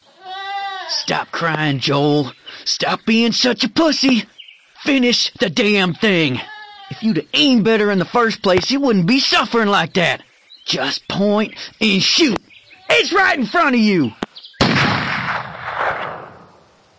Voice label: voicemails; cycle of death; Echo Messages